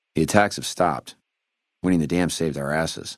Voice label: male adult greeting; Fnv